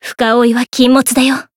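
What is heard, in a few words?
encount fear, Japanese; isuka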